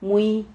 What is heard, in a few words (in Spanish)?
"mui", Silabas